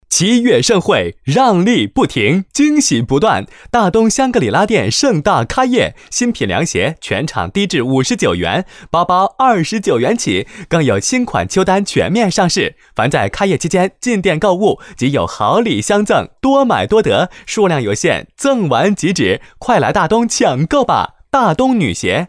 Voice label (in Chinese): 男 中文 广告类 女鞋促销; 大东女鞋